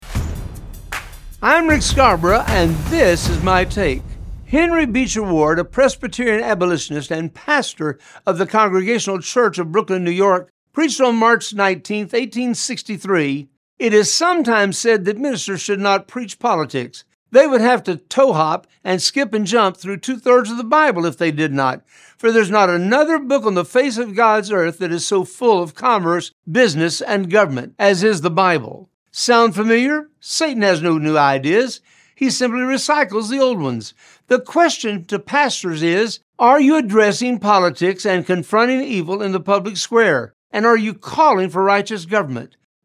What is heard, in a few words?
Radio Spot; Pastors Politics